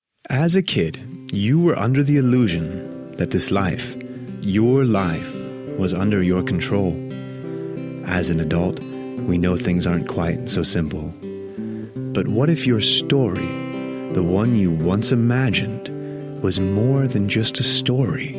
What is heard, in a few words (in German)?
US Imagefilm, englisch; sprecher